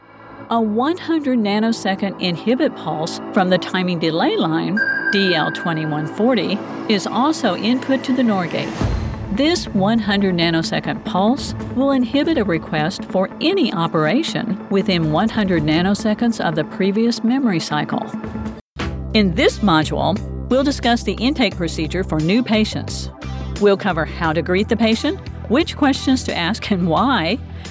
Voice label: ELEARNING; KUHL VOICE-OVERS